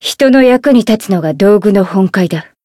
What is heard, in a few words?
Voice win, jp; hiina